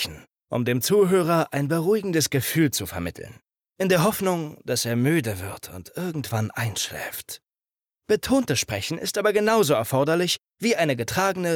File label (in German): Erzaehlung Vielerlei Arten